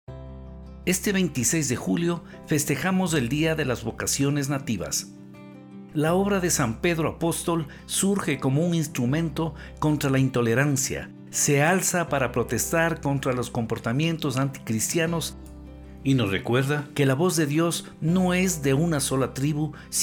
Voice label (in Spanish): spot M; Vocaciones Nativas